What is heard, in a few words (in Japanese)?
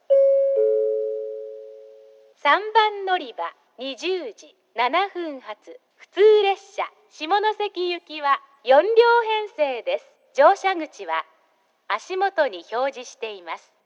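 3番のりば案内放送, 女声; 行橋; 普通 下関行き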